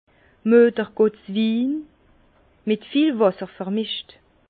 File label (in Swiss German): "muedergotteswin", Bas Rhin; vin; vin de sainte vierge mélangé à beaucoup d’eau